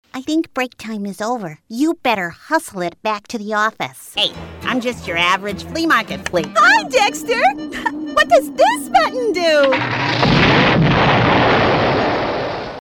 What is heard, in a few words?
Cartoons